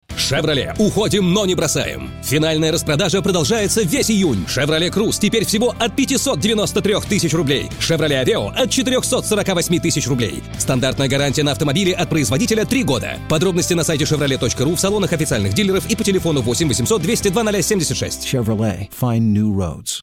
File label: radio; chevrolet